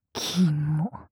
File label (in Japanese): きも・強く・極近・左, ASMR